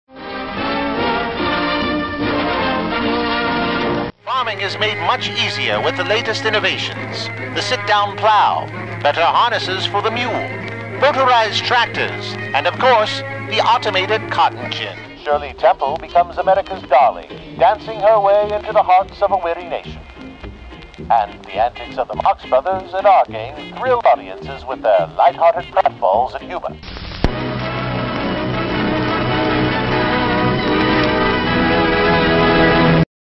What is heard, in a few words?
Vintage Newsreel